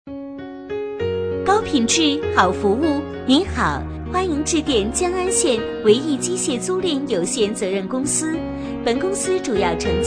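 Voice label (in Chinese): 女彩铃; 唯懿机械租赁有限责任公司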